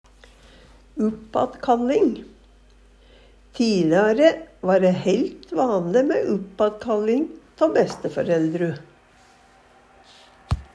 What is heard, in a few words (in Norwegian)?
uppattkalling, Numedalsmål